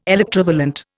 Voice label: "electrovalent", Indian